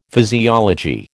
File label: "physiology", American